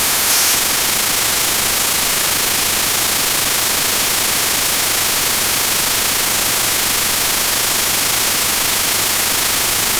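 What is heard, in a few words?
tv news report male anchor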